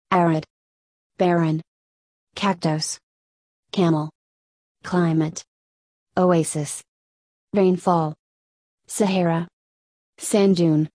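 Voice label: American; desert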